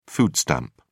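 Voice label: "food stamp", British English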